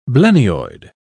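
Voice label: "blennioid", British English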